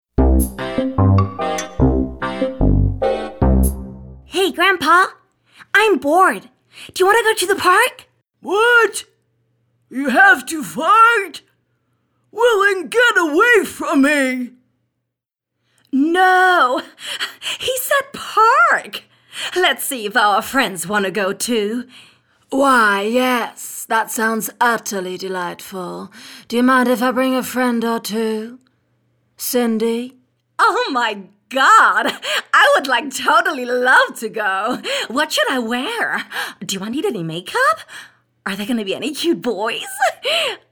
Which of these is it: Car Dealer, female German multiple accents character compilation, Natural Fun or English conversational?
female German multiple accents character compilation